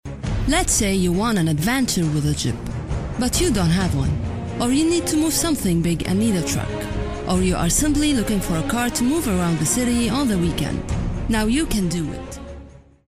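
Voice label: Arabic, female, english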